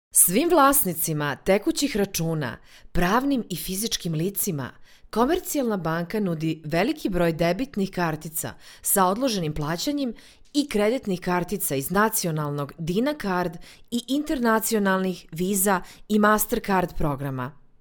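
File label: Serbian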